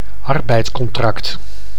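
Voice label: "arbeidscontract", Dutch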